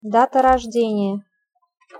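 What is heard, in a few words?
"data rozdenija", Russian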